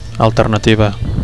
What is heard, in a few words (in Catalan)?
"alternativa", Catalan